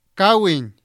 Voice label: "kawin", Totonaco; plátano macho

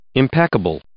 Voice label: "impeccable", English

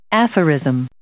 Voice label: "aphorism", English

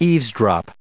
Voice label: "eavesdrop", English; verb

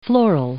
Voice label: "floral", English

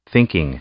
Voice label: "thinking", English; noun

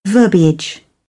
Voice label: "verbiage", British English; ɝː - ɜː

